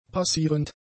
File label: "passierend", German; adjectives